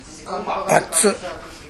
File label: "battu", minamiyamagata